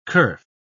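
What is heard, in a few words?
"kerf", British English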